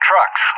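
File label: "trucks", English; JTAC; DCS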